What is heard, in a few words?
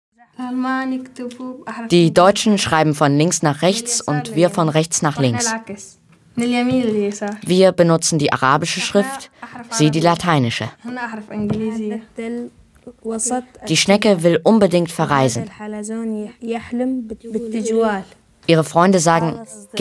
VoiceOver